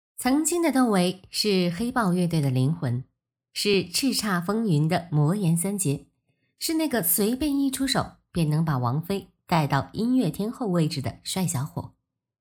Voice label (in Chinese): 女声娱乐解说样片声音知性